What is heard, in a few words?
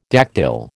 "dactyl", American